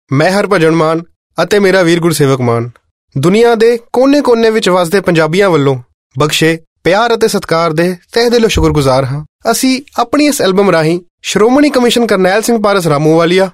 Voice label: Punjabi, male voice